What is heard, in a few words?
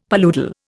"paludal", Indian; Dictionary